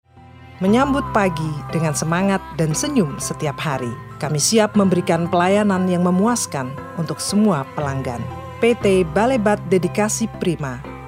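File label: Indonesian formal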